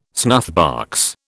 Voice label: "snuffbox", British